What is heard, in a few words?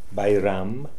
"Bairam", Beelzebub pronounciations; Fourth Way